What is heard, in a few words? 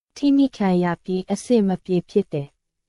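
Myanmar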